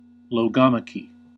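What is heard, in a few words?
"logomachy", US English; pronunciations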